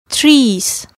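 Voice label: "trees", English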